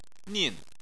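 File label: "nin" fourth tone, Chinese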